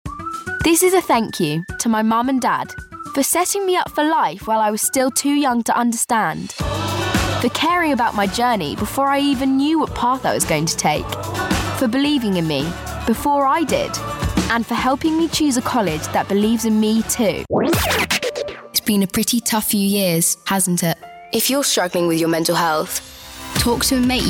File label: daughter 14; 14 in 2021